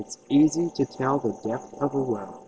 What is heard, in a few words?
Enhanced Speech